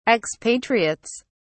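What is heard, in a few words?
"expatriates", British English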